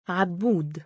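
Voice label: male "3abbuud", Arabic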